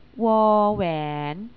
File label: "waen", Thai